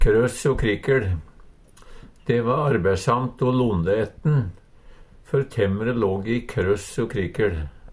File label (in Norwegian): kross o krikkel, Numedalsmål